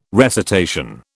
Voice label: "recitation", British